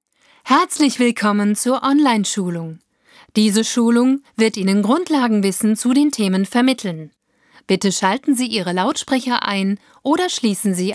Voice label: eLearning